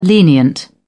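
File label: "lenient", British English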